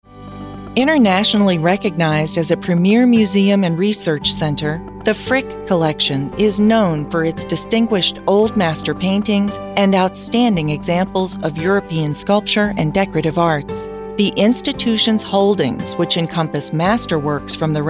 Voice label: Corporate Narration